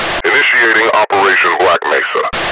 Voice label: round draw, radio; cstrike; csof